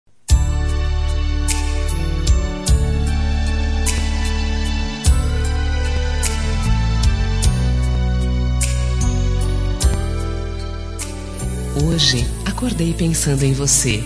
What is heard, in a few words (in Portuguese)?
Romântica Com Saudades de Pessoa Especial, F